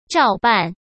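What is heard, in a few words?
"照办", Mandarin Chinese; chinese flashcards; hsk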